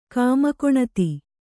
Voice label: "ಕಾಮಕೊಣತಿ", Kannada